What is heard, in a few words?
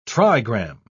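"trigram", British English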